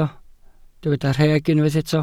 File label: "rheg", Welsh